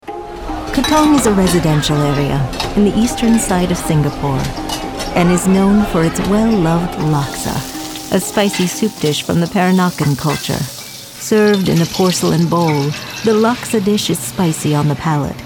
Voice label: TV Narration; Laksa